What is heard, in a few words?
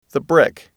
"brick", english